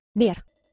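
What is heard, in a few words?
"ner", Proto-Indo-European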